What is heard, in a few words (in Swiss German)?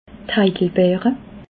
"heidelbeere", alsace